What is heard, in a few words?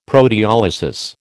"proteolysis", American